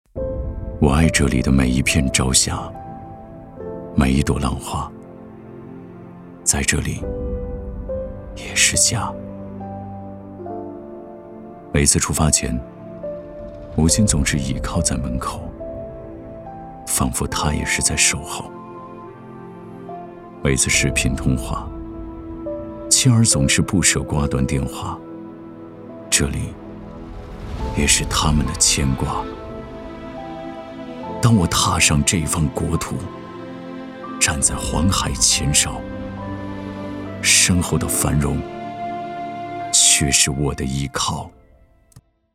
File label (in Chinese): 男 走心 讲述